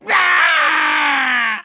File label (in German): Deutsch Boy what; Donald Duck Goin' Quackers